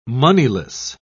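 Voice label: "moneyless", English, British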